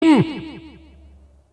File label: ball blue scores, announcer; tf2cciv; open fortress